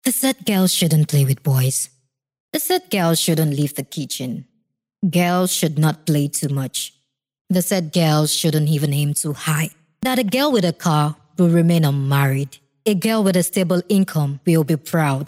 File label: Yoruba F English